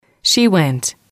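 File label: "she went", English, female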